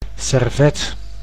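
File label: "servet", Dutch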